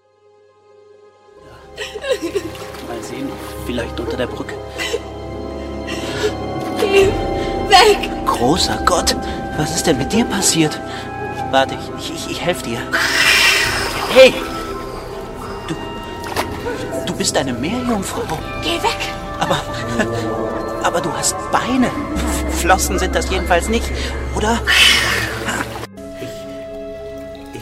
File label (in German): hoerspiel